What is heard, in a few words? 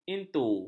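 "intu", Malay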